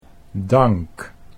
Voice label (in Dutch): "dank", Dutch